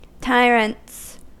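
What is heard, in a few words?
"tyrants", US English; pronunciations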